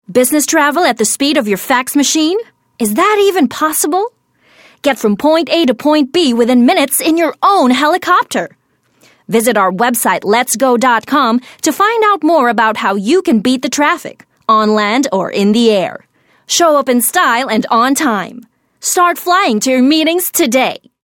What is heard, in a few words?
English (Selling, Confident, Enthusiastic)